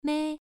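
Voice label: "mei", tone 1, pronunciation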